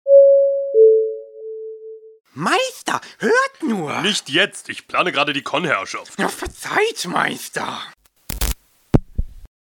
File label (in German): durchsage